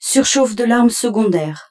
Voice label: French, Secweapo